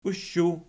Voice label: "ushu", Archi